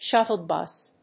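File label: "shuttle bus", English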